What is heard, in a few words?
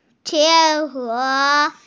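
"qi3e2", Mandarin Chinese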